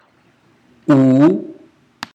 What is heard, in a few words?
latin u